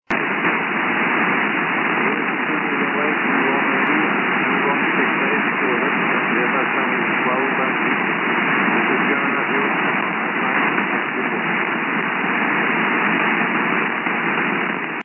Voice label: Bear Island 1757 Bear Island Radio

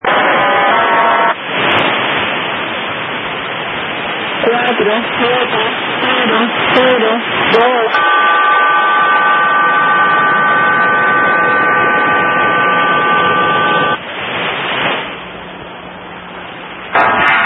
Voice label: Cuban Numbers

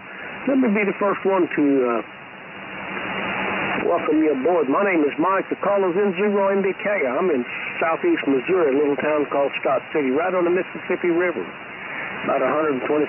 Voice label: 3.855 MHz LSB Awful Awful Ugly Net

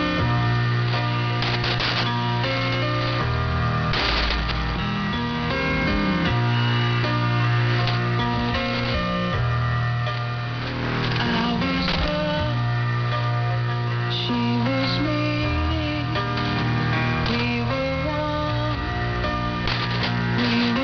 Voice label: Radio Casa, 5900.00 am; itapeva websdr

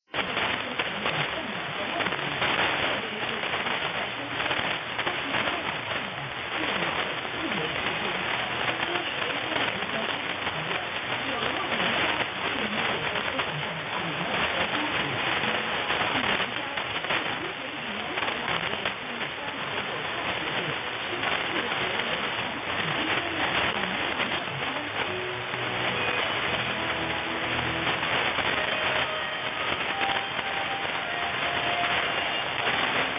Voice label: 9.685 MHz RFA Chinese Kuwiat

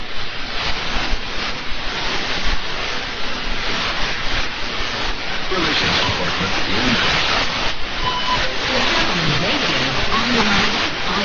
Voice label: Radio Thailand

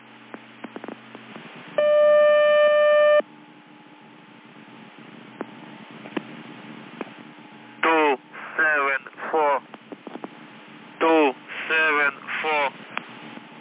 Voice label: 8080 usb live voice

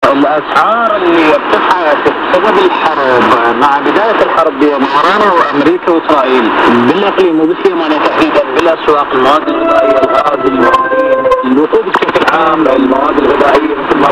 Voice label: Signal overlap, BBC, Radio Romania, 15280 khz; BBC's emergency radio service for Sudan and Gaza

